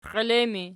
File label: xielettu erg, archi